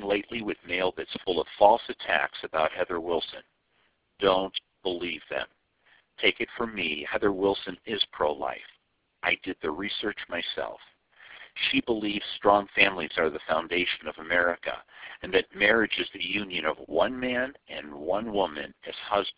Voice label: Phone Call; Redmond